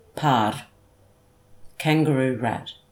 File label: "parre" kangaroo-rat